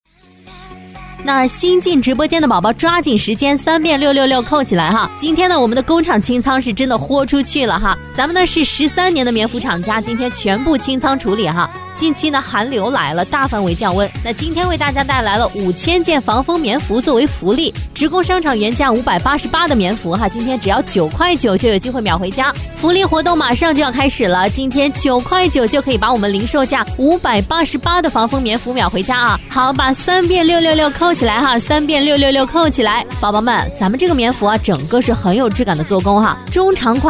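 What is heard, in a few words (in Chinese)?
女-抖音带货【活力动感】; 防风服福利9.9元; 素人自然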